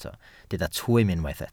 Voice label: "twym", Welsh

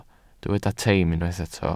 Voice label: "teim" Welsh

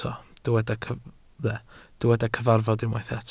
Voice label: "cyfarfod" Welsh

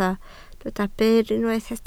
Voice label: "byr" Welsh

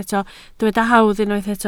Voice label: "hawdd" Welsh

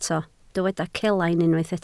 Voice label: "celain", Welsh

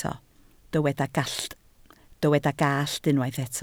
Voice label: "gallt", Welsh

